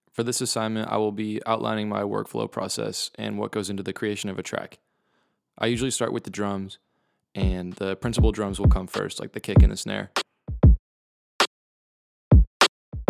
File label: Narration